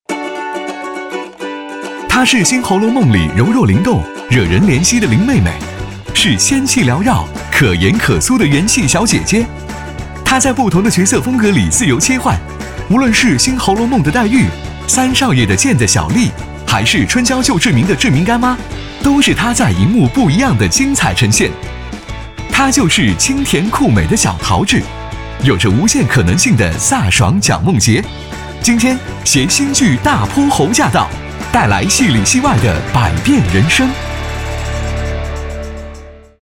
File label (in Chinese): 综艺预告自然活力